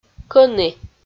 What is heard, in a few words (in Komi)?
"кӧні" Komi